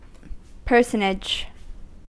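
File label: "personage", US English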